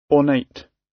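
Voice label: "ornate", British; Design and innovation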